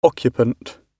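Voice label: "occupant", British English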